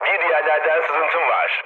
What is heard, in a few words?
tank damaged, mood low, speech tur; War Thunder